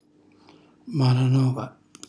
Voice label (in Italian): "malanova", Calabrese; maledizione; cucina calabrese; Glossario